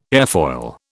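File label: "airfoil", British English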